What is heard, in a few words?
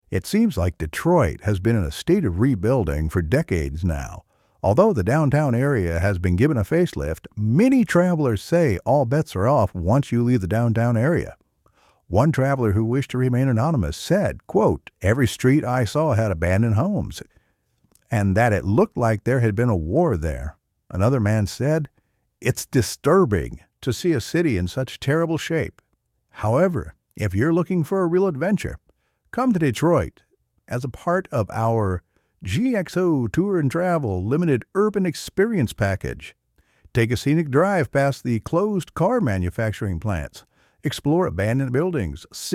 MALE; GXO TOUR DETROIT